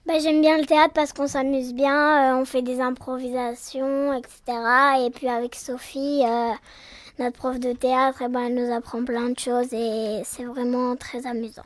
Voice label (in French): 9 ans